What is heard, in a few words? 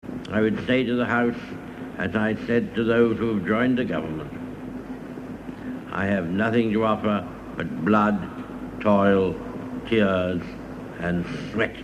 Churchill Speech Victory At All Cost